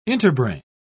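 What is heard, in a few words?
"interbrain", British English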